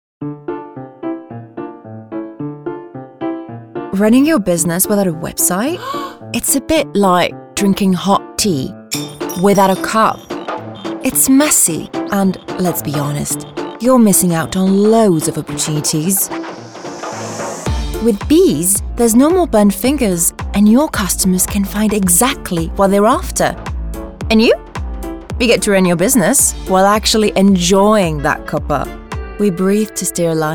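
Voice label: English Commercial, Youthful, Natural, Engaging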